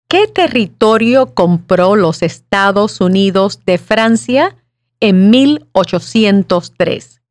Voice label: Spanish